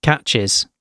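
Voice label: "catches", British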